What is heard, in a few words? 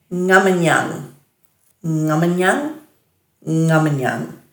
"ngaminjang", Dhurga